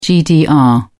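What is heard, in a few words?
"gdr", British English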